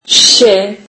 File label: turkish alphabet se